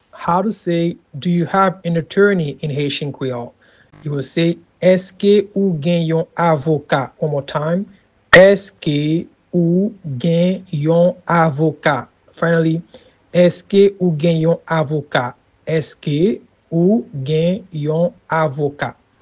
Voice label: Do you have an attorney in Haitian Creole Eske ou gen yon avoka pronunciation